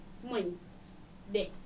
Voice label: "monyde", Dinka